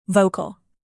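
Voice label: "vocal", English word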